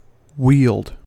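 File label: "wield", American English; pronunciations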